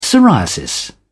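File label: "psoriasis", British English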